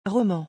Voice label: "roman", French

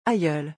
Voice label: "aïeul", French

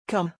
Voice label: "comme", French

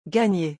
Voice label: "gagner", French

